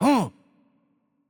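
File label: Turkish attack light voice; bloom minigame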